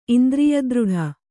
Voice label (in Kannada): "ಇಂದ್ರಿಯದೃಢ", Kannada